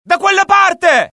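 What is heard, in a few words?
alert, Italian; mohaa; dialogue; sighted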